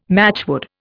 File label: "matchwood", Indian